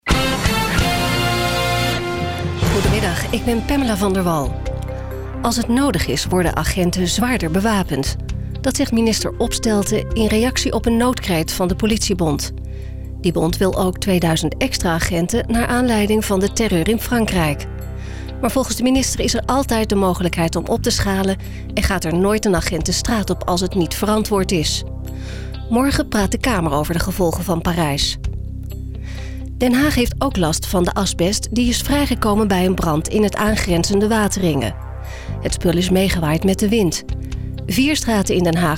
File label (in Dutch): Nieuws